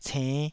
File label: "cenn5", taiwanese Syllables